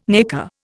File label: "nacre", Indian